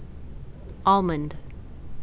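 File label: "almond", Canadian, female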